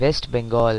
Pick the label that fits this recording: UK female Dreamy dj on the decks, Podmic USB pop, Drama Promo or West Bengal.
West Bengal